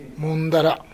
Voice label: "mondara", minamiyamagata

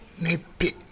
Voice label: "neppi", minamiyamagata

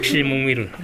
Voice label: "kimomeru", minamiyamagata

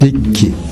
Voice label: "bikki", minamiyamagata; 蛙。〈べっき〉とも。

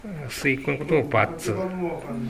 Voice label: "battu", minamiyamagata

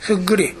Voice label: "fuggure", minamiyamagata

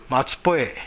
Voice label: "matupoe", minamiyamagata

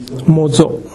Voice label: "mozo", minamiyamagata

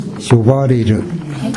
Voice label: "yobareru", minamiyamagata

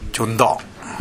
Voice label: "jonda", minamiyamagata

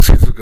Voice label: "sezuku", minamiyamagata

